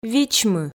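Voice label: "вичмы", Komi, female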